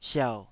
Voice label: "siau3", Taiwanese syllable

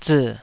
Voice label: "zii3", Taiwanese syllable

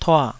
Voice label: "tua3", taiwanese

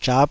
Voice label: "zhap5", Taiwanese syllable

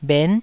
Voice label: "bhen9", taiwanese

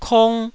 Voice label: "kong1", taiwanese syllables

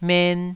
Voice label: "men2", Taiwanese

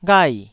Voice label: "ghai4", Taiwanese

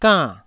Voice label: "gann4", Taiwanese

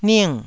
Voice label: "ning4", Taiwanese

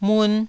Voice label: "mun1", taiwanese syllables

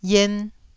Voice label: "ien1", taiwanese syllables

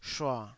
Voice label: "shua3", taiwanese Syllables

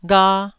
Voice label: "gha1", Taiwanese syllable

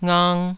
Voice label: "ngang2", Taiwanese syllable

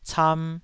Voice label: "cam2", taiwanese syllables

